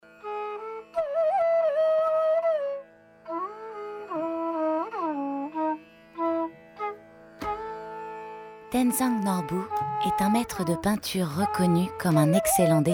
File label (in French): voix doc bouddhiste; F2